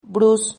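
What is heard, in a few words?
"brus", Spanish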